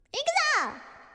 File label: Ikuzo reverb; IRyS JP